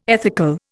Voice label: "ethical", Indian